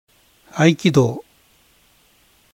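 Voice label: "合気道" pronunciation, Japanese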